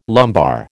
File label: "lumbar", American